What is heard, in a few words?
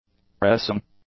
"pressing", english; adjectives